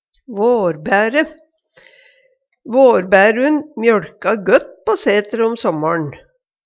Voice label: varbaere, Numedalsmål; Vårbærun mjøLka gøtt på sætre' om sommar'n.

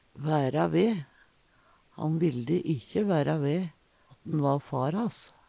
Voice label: vaera ve, Numedalsmål; tilstå; Han vilde ikkje væra ve at`n va far hass.; Uttrykk

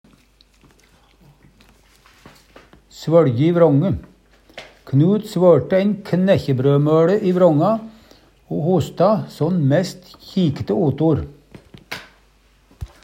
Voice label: svøLje i vrånje, Numedalsmål

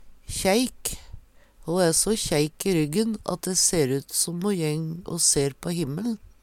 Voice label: kjeik, Numedalsmål

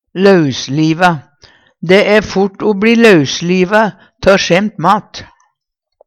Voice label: "lausliva", Numedalsmål; Det æ fort o bLi lausliva tå skjæmt mat.; Adjektiv

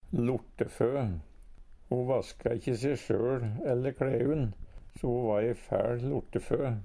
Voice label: "lortefø", Numedalsmål; Substantiv hokjønn

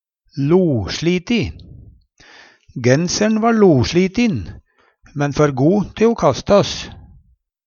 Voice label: losliti, Numedalsmål; Personleg utstyr, klede, sko; Tekstilhandverk; Adjektiv

